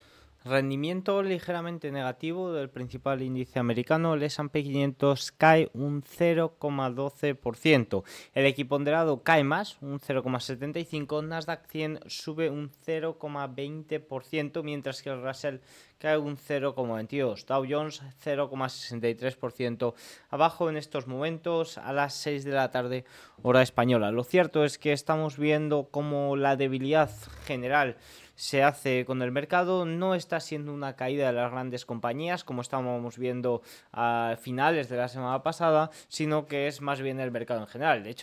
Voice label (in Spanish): radio; noticias bolsa